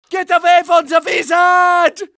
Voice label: scared; medic